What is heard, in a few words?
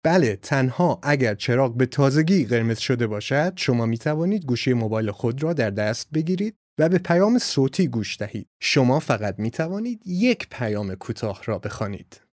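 Farsi, male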